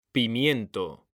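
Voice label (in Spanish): "PIMIENTO", Spanish; mekanta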